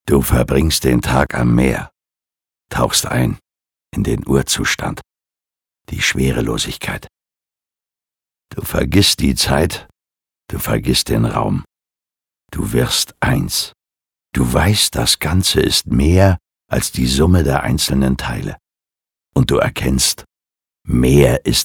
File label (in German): Schauspiel Rau